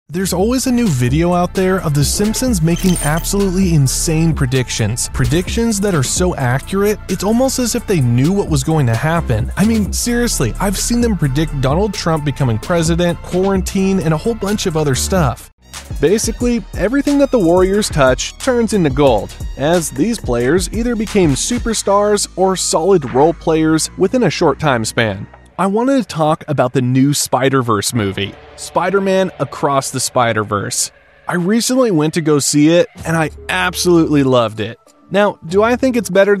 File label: VO ONLY, youtube voice over